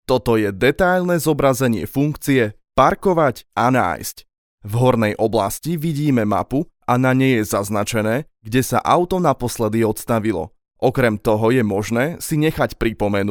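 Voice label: Slovak, male, e-learning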